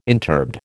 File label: "interbed", American